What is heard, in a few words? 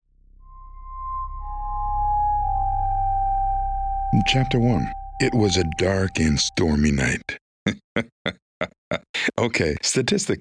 Audiobook music